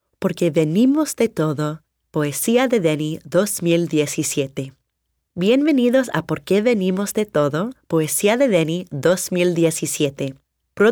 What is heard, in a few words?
Opening Narration